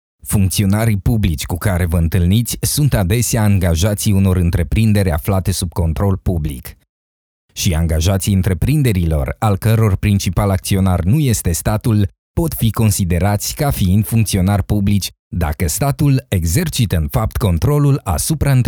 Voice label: romanian corporate videos; Natural